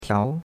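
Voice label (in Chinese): "tiao2" Chinese; Cours-de-Chinois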